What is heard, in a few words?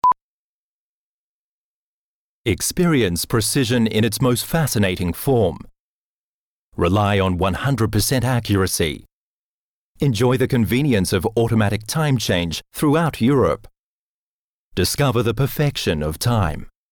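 Australian advert, englisch; werbung; sprecher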